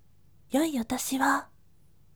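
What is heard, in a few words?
voice, gentle; yoi otoshiwo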